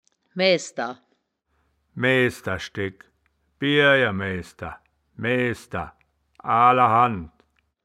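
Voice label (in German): "maeaesder", wittlicher woerterbuch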